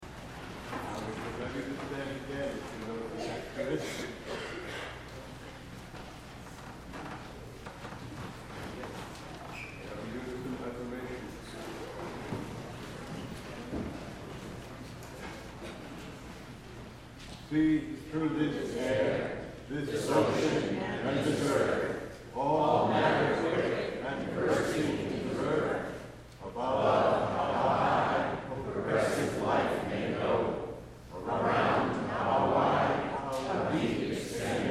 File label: Unison Affirmation